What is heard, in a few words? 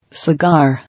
"Cigar", English